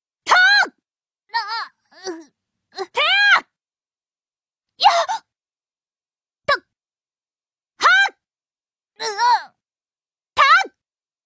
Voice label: female voice; dragon ball xenoverse